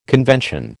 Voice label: "convention", American